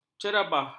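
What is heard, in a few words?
"cerabah", Malay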